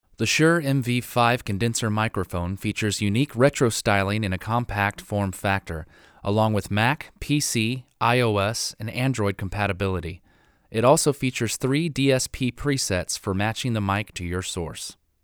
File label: Voc Shure MV5 Flat; usb mic